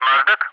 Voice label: "Mozdok", ENG, ATC; callsign; DCS